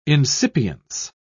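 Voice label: "incipience", British English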